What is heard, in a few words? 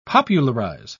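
"popularise", British English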